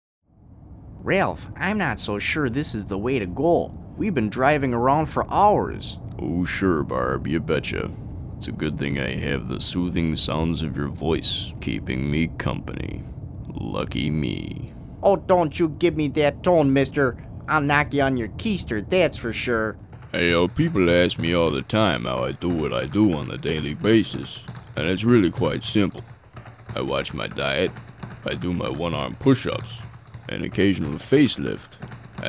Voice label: Character